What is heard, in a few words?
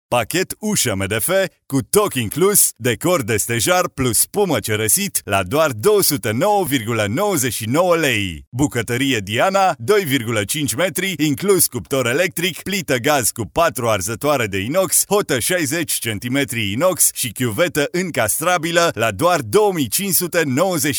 romanian voiceover locutor rumano